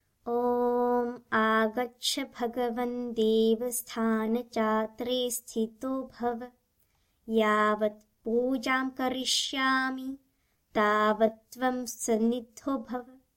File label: aavaahana male